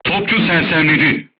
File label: voice message gunlayer contused, turkish